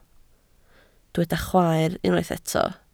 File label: "chwaer", Welsh